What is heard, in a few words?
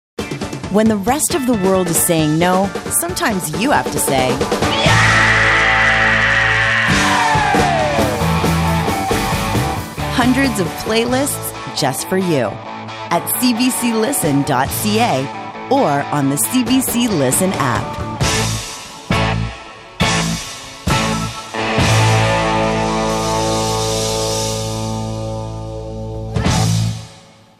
Promo Yeaahhhhh; CBC Listen